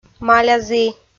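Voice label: "малязі" Komi, female; kpv audio dict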